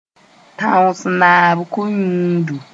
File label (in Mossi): "tãoos-naab ko-yũudu", moore